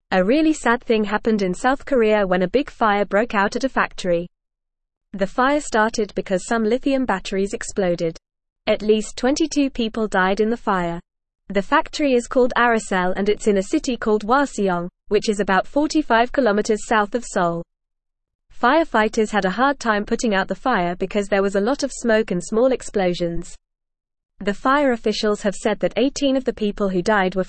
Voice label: English FAST Reading; Massive Factory Fire in South Korea Kills 22